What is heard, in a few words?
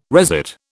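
"resit", British English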